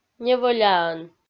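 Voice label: "невӧляӧн", Komi-Zyrian, female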